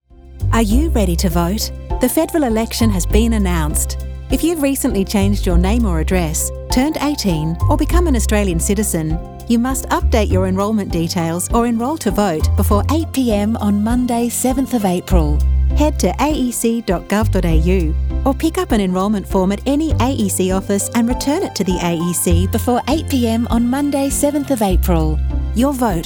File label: radio; Voter services – Early voting; 2025 federal election; Australian Electoral Commission